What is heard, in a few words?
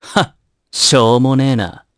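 Vox Skill, voices, Japanese; kingsraid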